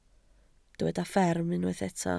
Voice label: "fferm", Welsh